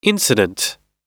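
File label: "incident", English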